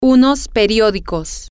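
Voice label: "some newspapers", Spanish, female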